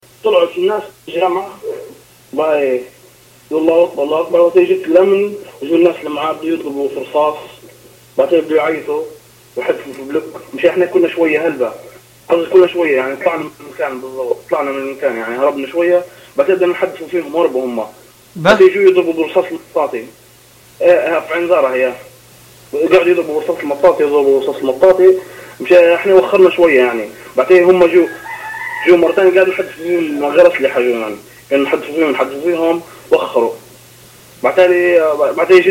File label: arabic describes shooting after friday prayer; lpc frm tripoli suburb ainzara